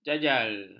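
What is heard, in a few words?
"jajal", Malay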